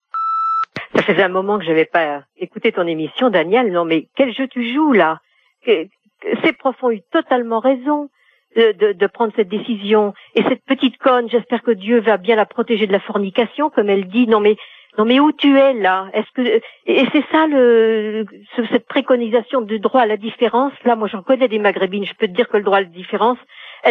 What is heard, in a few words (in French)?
répondeur